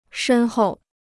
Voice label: "深厚", Chinese

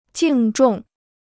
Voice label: "敬重", Chinese

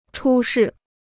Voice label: "出事", Chinese

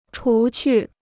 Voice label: "除去", Chinese

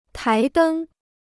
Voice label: "台灯", Chinese

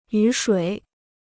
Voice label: "雨水", Chinese

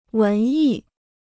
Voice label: "文艺", Chinese

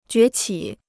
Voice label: "崛起", Chinese

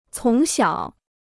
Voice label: "从小", Chinese

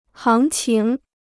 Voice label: "行情", Chinese

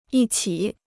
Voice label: "一起", Chinese

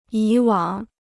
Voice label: "以往", Chinese

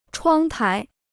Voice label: "窗台", Chinese

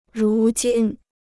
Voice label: "如今", Chinese

